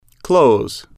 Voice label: "close", English